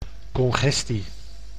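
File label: "congestie", Dutch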